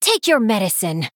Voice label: Female Night Elf Attack